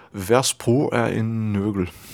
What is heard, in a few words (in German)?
dänisch